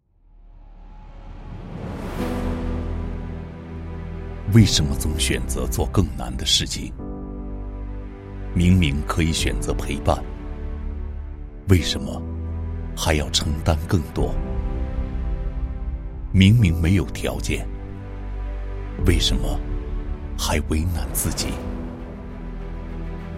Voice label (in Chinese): 男 致敬劳动者配音